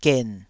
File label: "gen3", Taiwanese